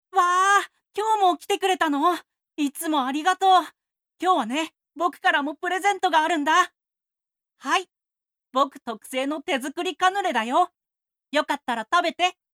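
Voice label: male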